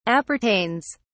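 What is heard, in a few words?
"appertains", British English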